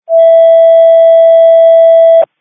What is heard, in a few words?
Police Tactical Encrypted